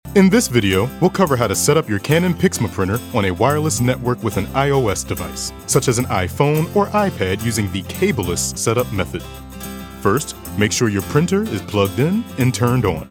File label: narration voice over, Friendly, Easy Going; Canon